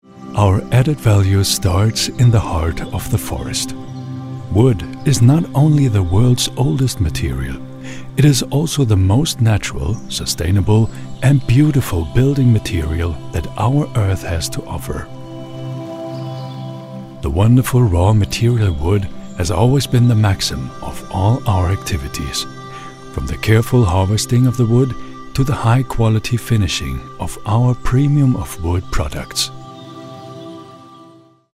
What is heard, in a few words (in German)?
Hoerprobe Englisch; rettenmeier